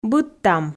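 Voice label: "быдтам", Komi, female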